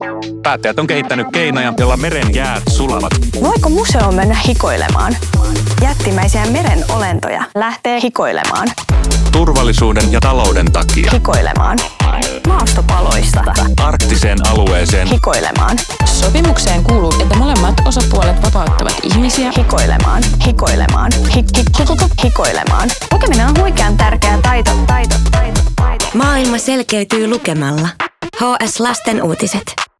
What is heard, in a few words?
radio; hs lasten uutiset; hikoilu